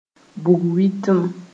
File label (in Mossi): "bug-witim", moore